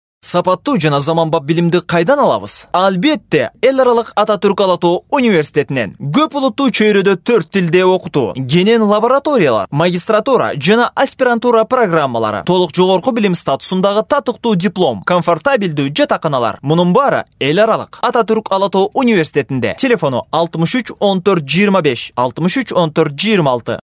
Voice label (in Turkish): Kirgizca Erkek